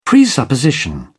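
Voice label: "presupposition", British English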